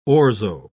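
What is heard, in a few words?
"orzo", British English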